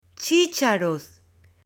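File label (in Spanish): "chicharos" Spanish, male; ALIMENTOS; AppLenguaje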